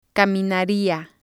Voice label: "caminaria", spanish